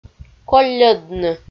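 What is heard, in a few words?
"колльӧдны" Komi, female; audio dict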